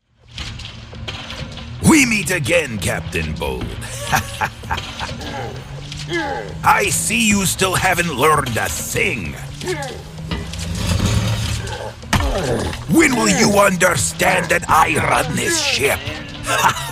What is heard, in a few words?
english american animation